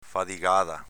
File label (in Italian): "fadigada", dialetto veneto; s.f. faticata